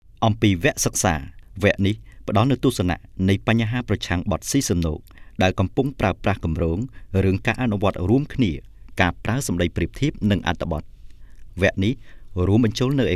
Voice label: Khmer

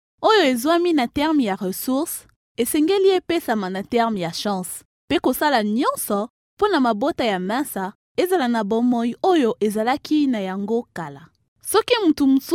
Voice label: Lingala Female

